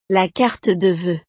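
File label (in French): "la carte de voeux", French; navidad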